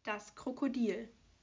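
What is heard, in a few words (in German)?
"Krokodil", German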